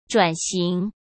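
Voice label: "转型", Mandarin Chinese; hsk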